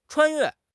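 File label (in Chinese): "穿越", Chinese; HSK6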